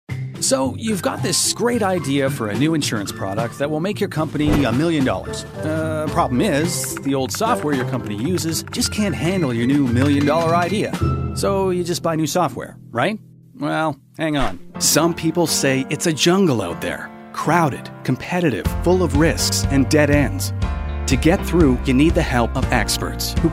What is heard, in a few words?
Explainer